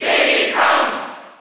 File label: Cheer German; Diddy Kong; SSB4